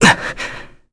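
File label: vox get, korean; voices; heroes; Arch; kingsraid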